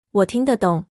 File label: understand, mandarin